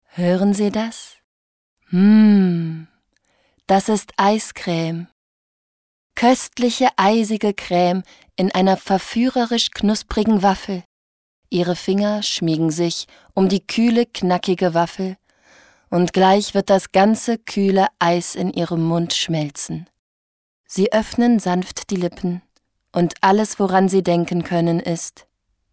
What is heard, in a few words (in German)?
Eiscreme Werbung